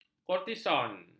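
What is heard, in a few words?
"kortison", Malay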